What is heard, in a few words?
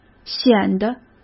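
"显得", Mandarin Chinese; hsk; chinese-flashcards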